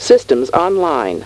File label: Computer 'Systems online.'; Star Trek; TNG